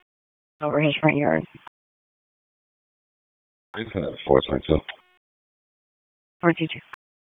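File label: Police Southeast District Dispatch; Raleigh; p25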